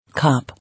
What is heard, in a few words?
"Cop", English